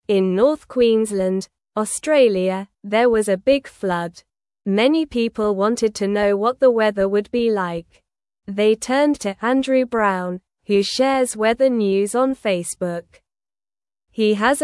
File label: English Newsroom Lower Intermediate SLOW Reading; Helping Friends with Weather News in Australia